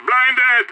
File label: flashed, radio; SAS